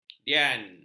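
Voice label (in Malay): "dian", Malay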